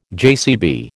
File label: "JCB", American